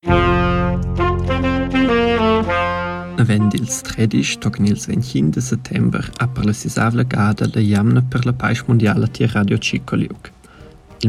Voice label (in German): jingle, rumantsch